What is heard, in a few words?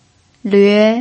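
"lüe", Mandarin Chinese pinyin, tone 2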